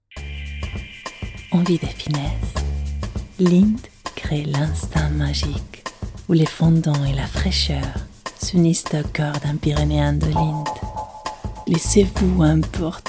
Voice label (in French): Francais suave chuchotant sensuel; Commercial